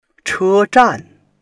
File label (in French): "che1zhan4", chinois